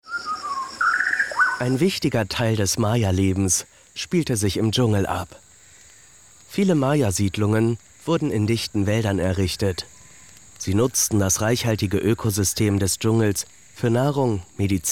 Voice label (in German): Doku